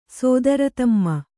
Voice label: "ಸೋದರತಮ್ಮ", Kannada; sōdara tamma; noun; a younger brother.